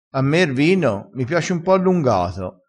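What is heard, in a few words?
"allungato", Italian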